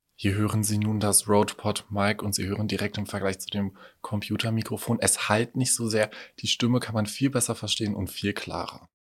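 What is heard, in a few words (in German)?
Rode PodMic mehr Rauschunterdrückung; guter ton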